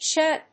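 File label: "Shhh", English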